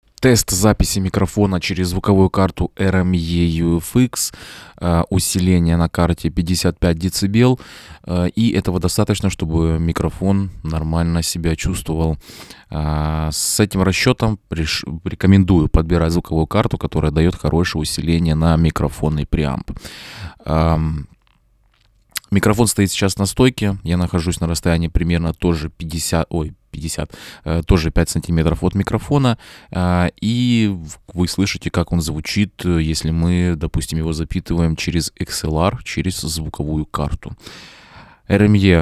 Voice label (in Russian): test golos fifine amplitank 3 xlr; ТЕСТ ГОЛОС